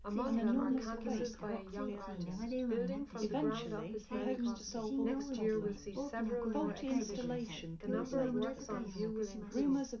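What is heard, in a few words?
female, live recording, 250 ms, 1 m, mix; SiSEC11; underdetermined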